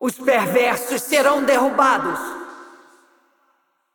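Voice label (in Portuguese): assist, Brazilian Portuguese; Kayle; bloom minigame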